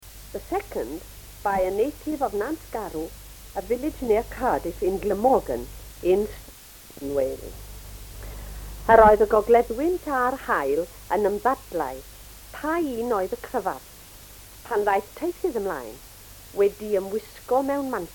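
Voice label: story, Welsh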